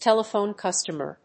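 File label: "telephone customer", English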